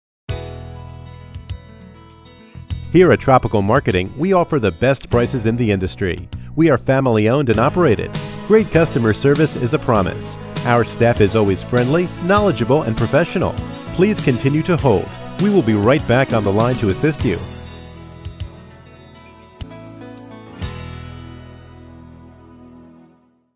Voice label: MALE